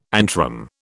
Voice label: "antrum", British

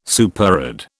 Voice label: "superadd", British

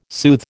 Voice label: "soothe", American

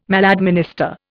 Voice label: "maladminister", Indian

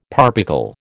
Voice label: "parbuckle", American

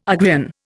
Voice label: "Ugrian", Indian

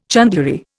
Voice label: "chandlery", Indian

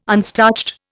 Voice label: "unstarched", Indian

